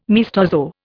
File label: "maestoso", Indian